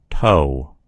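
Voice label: "toe", American English; gcompris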